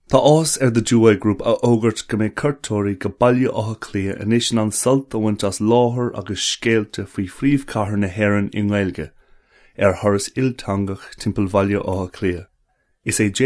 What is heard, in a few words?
Gaelic; Male; 30s-40s